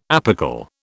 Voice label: "apical", British